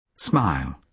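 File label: "smile", British English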